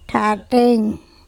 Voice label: my saliva THaTeing, andamanese

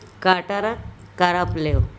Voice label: "kaTarakarapleo", andamanese; her thin waist

